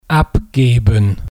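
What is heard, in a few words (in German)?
"abgeben", German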